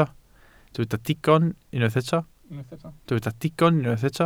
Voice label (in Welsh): "digon", Welsh